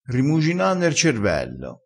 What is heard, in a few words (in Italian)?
"rimugina", crespinese